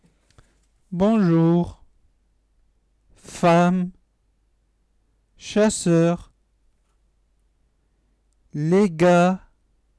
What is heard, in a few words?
cerebral palsy speech